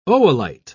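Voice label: "oolite", British English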